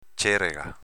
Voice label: "cerega", dialetto veneto

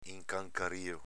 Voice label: "incancario", dialetto veneto

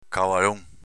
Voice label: "cavalon", dialetto veneto

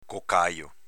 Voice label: "cocajo", dialetto veneto

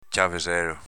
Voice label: "ciaveselo", dialetto veneto

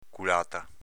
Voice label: "culata", dialetto veneto; culàta s.f. natica

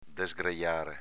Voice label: "desgrejare", Veneto; v. sciogliere (nodi), sgrovigliare

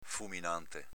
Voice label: "fuminante", dialetto veneto; s.m. fiammifero

